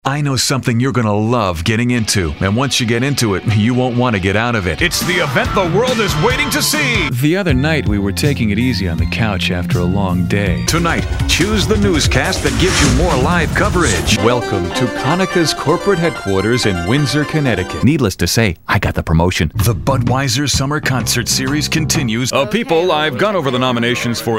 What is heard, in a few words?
voiceovers commercials